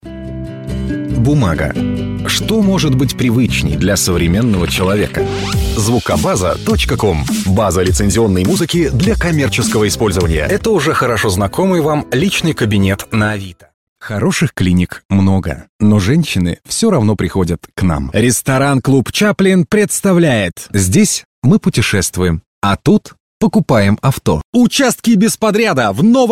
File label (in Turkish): Rusca Erkek, Seslendirme, Erkek Ses